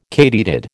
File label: "katydid", American